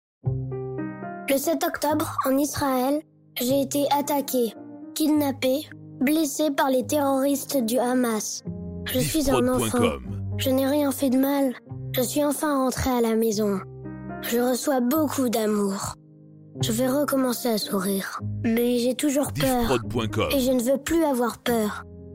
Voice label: Spot Appel aux dons; Keren Hayessod

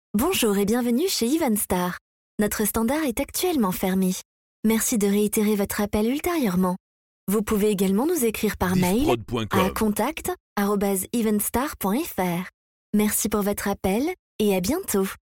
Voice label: Voix Repondeur, telephonie svi; EvenStar Audiotel

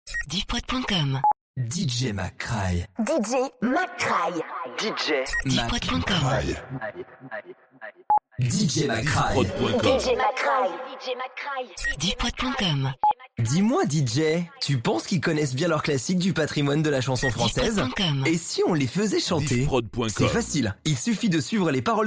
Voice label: Drops A cappellas, habillage

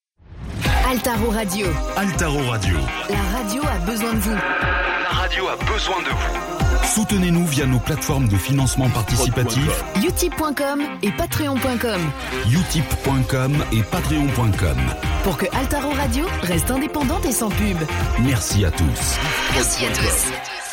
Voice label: Radio Promo Financement, publicite; Altaro

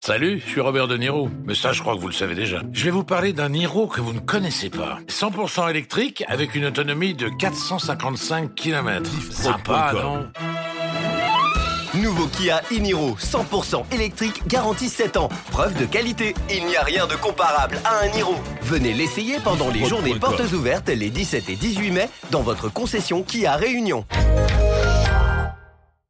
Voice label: Spot, publicite; KIA e Niro

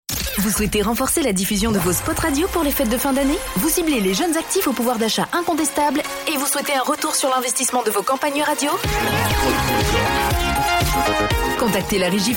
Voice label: Spot Regie Fetes; Fun Radio Guada

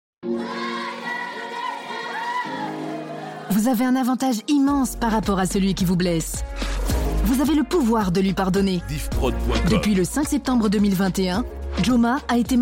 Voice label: Promo Le pouvoir de pardonner; Djoma Media

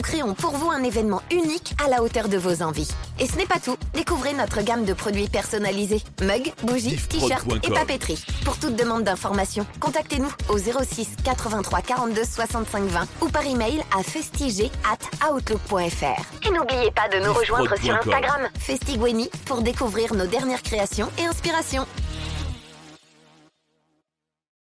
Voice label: Spot Agence, publicite; Festy

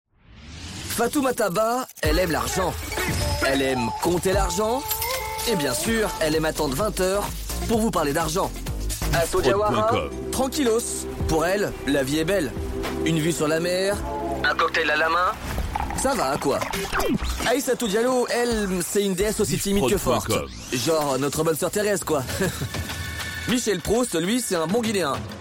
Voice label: Promo Libre Antenne; Generation Fun

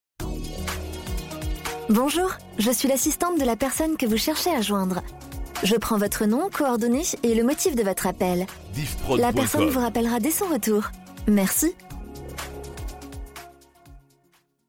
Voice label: Repondeur, telephonie svi; Alpha

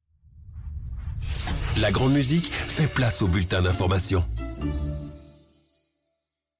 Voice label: Jingle Bulletin d'information; La Grande Musique

